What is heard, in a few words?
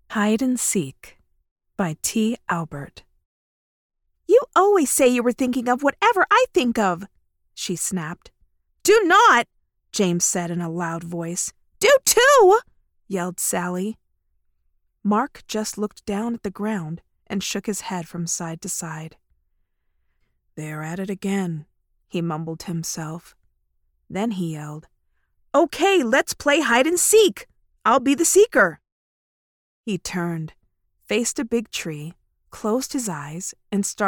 Children